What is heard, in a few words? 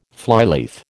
"flyleaf", American